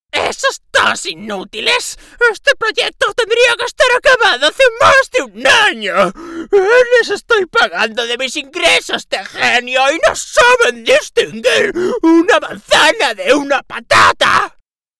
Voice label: male Galician and Spanish Castilian cartoon voice